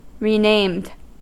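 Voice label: "renamed", US English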